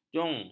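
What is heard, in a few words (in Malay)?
"jong", Malay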